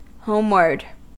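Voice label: "homeward", US English; pronunciations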